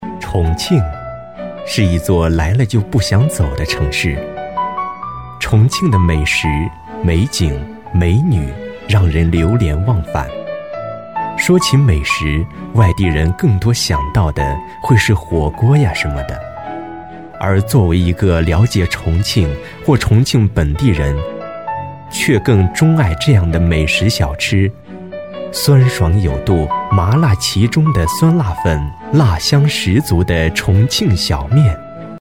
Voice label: 纪录片; 重庆

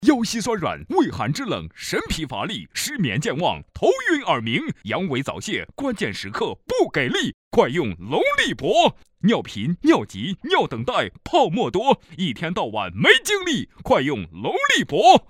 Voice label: 电视购物保健品 给力清晰激情; 龙力勃

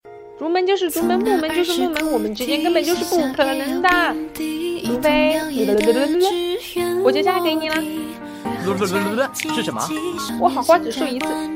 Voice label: 少萝音俏皮单纯可爱

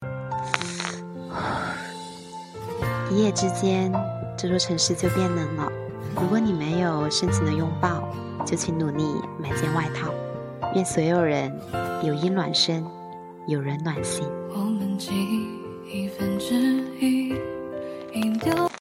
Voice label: 治愈系; 世界的温度

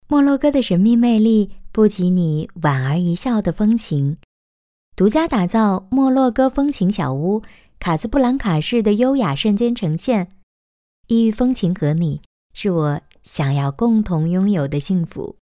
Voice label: 配音干音

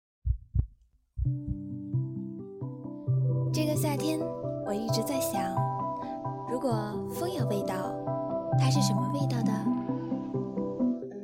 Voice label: 情感语录 优美女声

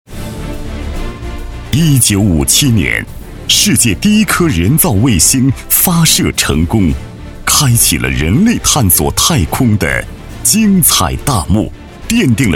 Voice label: 高端男政府宣传卫星导航激情大气

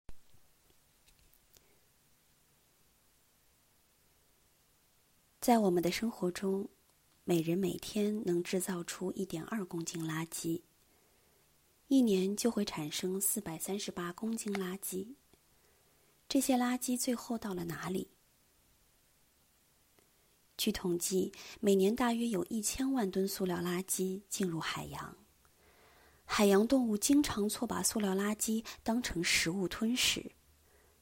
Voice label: 非播音腔环保类微电影配音

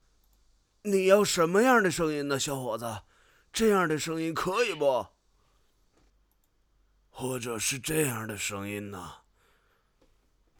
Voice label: 老人

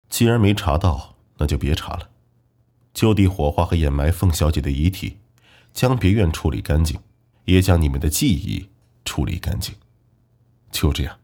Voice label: 男播; 重生之铁血凰后

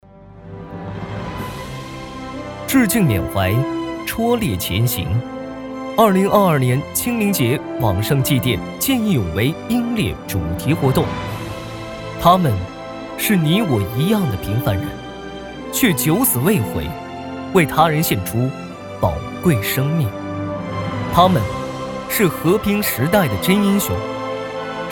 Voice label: 宣传活动

